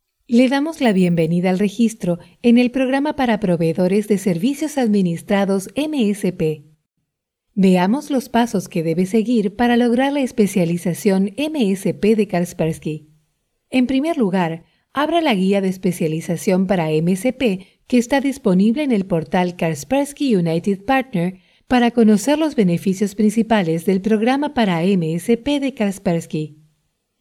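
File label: Spanish, female, elearning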